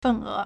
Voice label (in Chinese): "fen4e2", Chinese